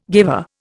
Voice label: "guiver", Indian